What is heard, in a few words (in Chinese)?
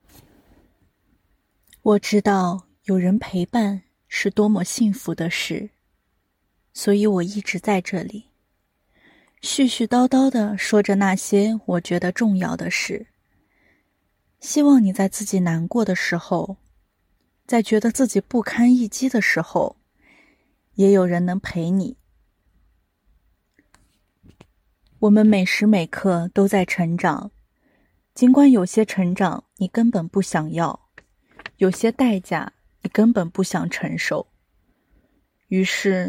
深情版